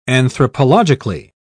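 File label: "anthropologically", English, British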